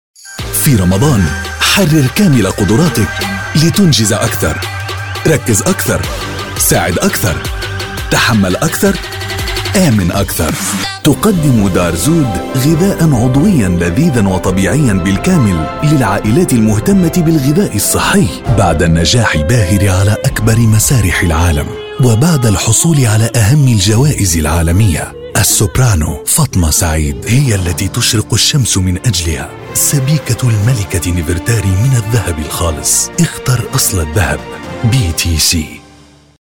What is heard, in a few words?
MSA COMMERCIAL; COMMERCIAL REEL